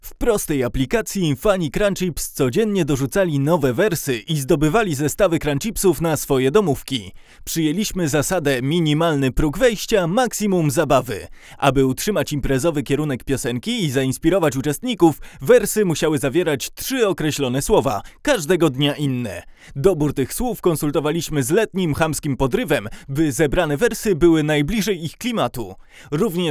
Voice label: tlm103 pre73